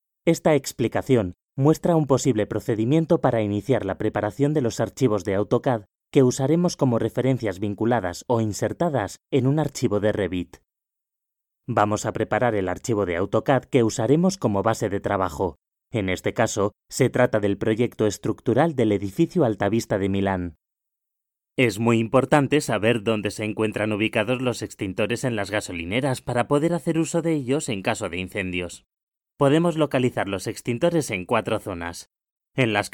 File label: elearning